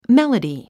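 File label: "melody", English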